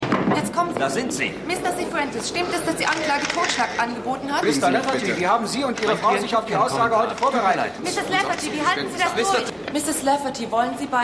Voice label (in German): Reporterin